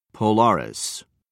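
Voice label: "polaris", British English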